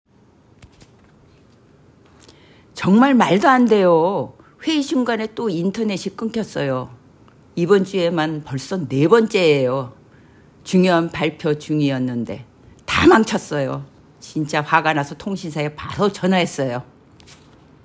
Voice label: Old Female Angry, korean voice emotion